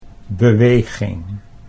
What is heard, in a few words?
"beweging", Dutch